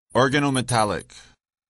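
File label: "organometallic", English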